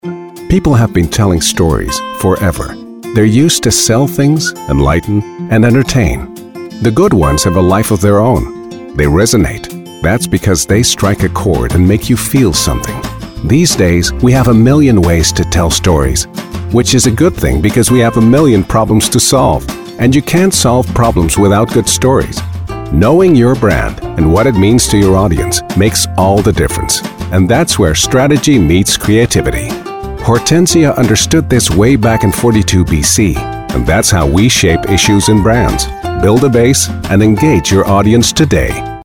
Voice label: en warm storytelling